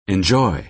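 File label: "enjoy" American English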